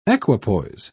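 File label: "equipoise", British English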